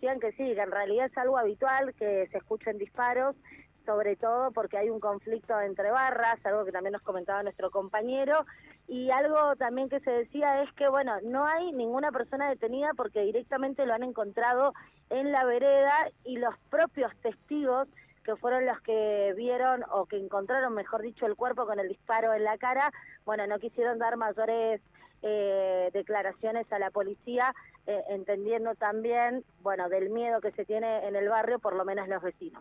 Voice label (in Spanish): TESTIMONIO VECINA; BARRIO LA GLORIA